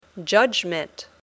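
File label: "judgment", English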